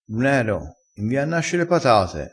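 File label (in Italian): "invia", crespinese dialettale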